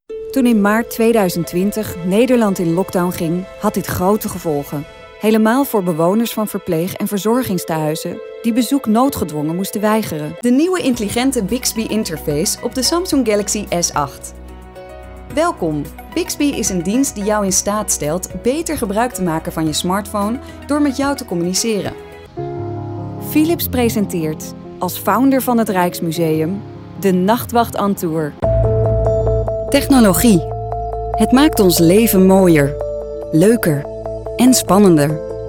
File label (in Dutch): Zakelijk